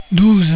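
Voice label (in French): "douze", French; cat numeration doigts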